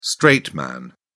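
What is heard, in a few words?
"straight man", British English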